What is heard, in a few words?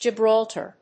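"Gibraltar", English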